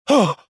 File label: pushed, rebel; XBOX